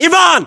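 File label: "ivan", battlechatter, Russian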